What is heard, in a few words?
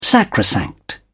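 "sacrosanct", English, British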